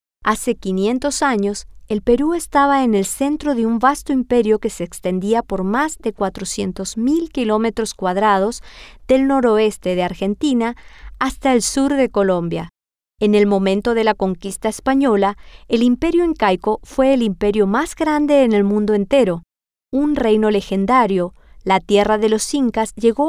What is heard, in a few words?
Spanish; una Herencia Ancestral